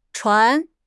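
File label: "chuan2", Chinese; HSK3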